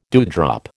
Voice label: "dewdrop", American

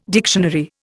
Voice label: "dictionary", Indian

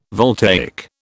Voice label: "voltaic", British

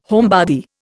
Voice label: "homebody", Indian

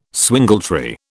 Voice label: "swingletree", British

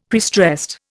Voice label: "prestressed", Indian; Dictionary

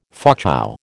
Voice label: "Fauchou", American

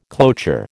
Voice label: "cloture", American

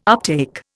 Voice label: "uptake", Indian

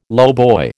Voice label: "lowboy", American

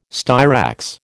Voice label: "styrax", American

